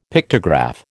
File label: "Pictograph", American